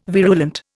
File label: "virulent", Indian